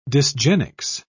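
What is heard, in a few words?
"dysgenics", British English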